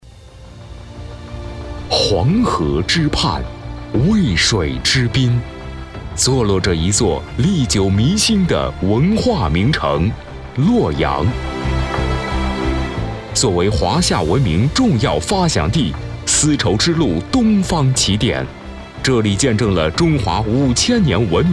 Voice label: 专题 大气稳重; 中铝集团

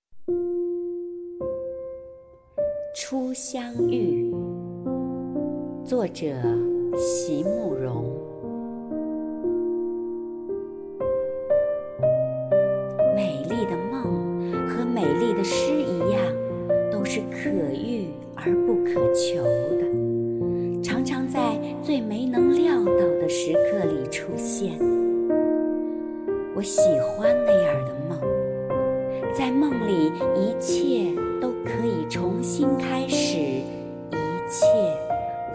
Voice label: 朗诵; 初相遇

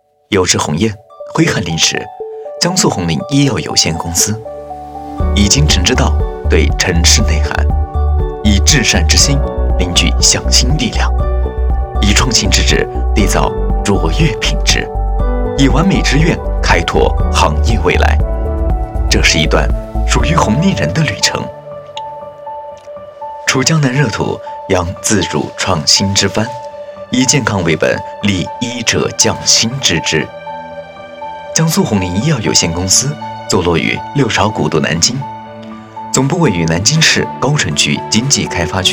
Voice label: 企宣样片

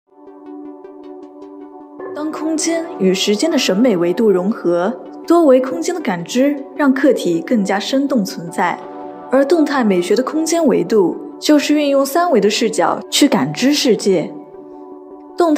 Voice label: 纪录片风格

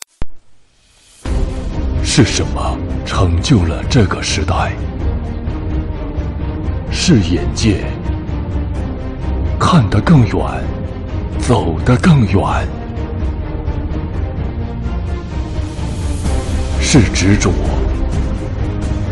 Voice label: 男大气汽车广告配音

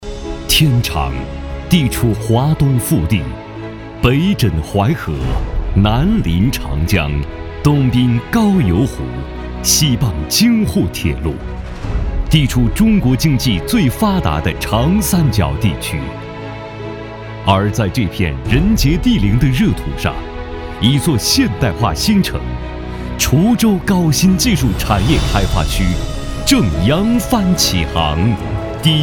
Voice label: 党政 汇报片 大气 稳重

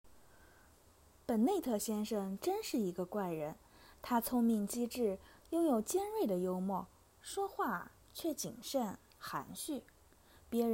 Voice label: 小说样音

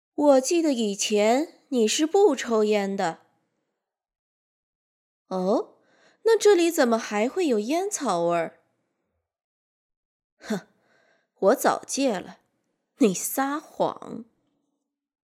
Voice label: 女播女巫婆婆

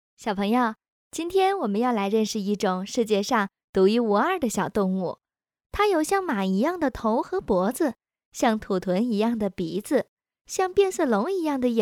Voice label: 女幼儿课件

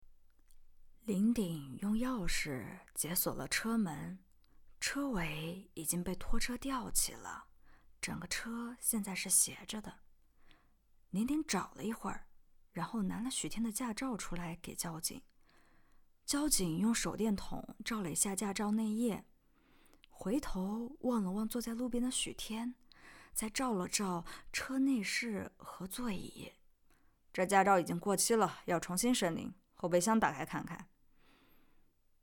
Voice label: 悬疑

